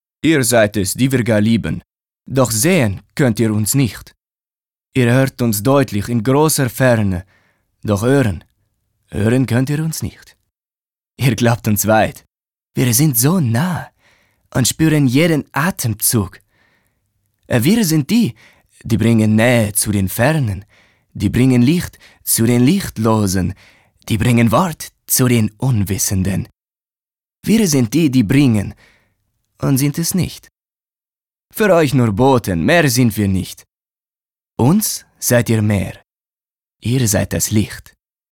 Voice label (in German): Akzent russisch, maenner; Charge+Akzente